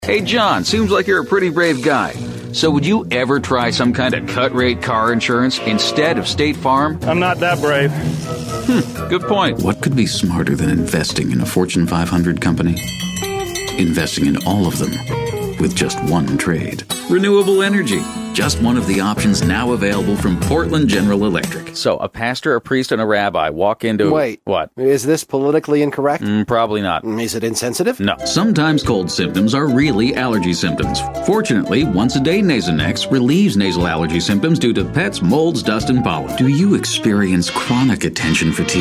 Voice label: Commercial